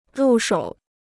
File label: "入手", Chinese